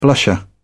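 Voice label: "blusher", British English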